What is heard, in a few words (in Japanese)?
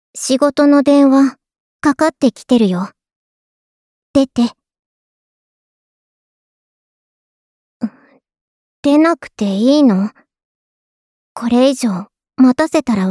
着信ボイス; 春日野穹